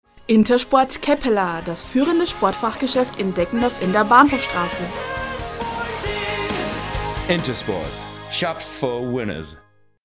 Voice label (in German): Werbung Reminder